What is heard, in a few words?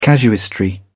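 "casuistry", British English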